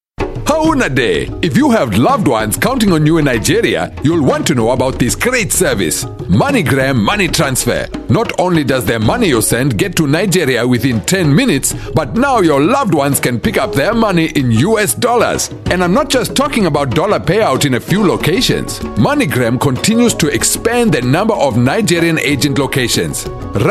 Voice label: African English Commercial, English South African Male